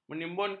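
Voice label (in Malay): "menimbunkan", Malay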